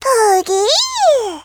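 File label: story, Japanese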